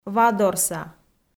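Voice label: "вадорса", Komi, female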